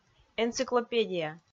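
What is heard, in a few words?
"энциклопедия", Komi, female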